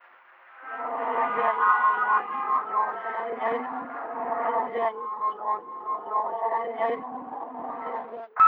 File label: radio filtered voice reversed